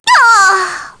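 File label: Vox Dead, Korean; Maria; kingsraid; voices heroes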